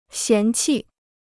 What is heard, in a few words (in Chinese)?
"嫌弃", Chinese